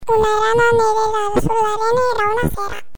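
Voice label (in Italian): La voce da topolino (effetto pitch-shift), Frase modificata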